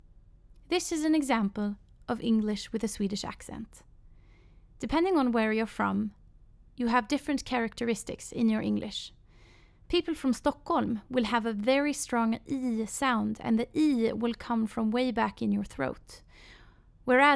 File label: Svenska Accents Dialects